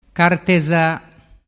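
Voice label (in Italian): "carteza", dialect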